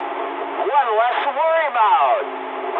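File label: English player killed enemy mood high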